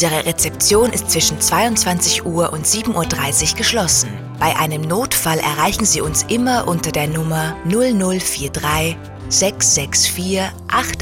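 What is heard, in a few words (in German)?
Hotel Nachtansage, Telefonanlagen; Hotel Enzian; telefonansagen hotels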